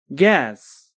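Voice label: "gas", Nepali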